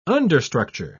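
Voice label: "understructure", English, British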